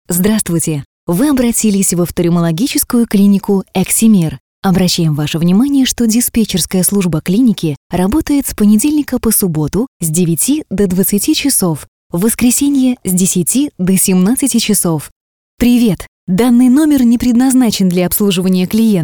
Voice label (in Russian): avtootvetchik; профессионального диктора для голосового меню